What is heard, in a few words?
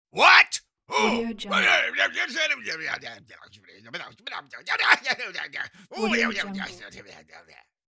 cartoon bad guy what with grumbling